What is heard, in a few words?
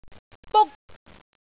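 "buk1", Cantonese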